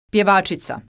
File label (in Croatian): "pjevacica", Croatian